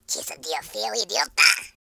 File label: angry, speech; Worms3D; Spanish Inquisition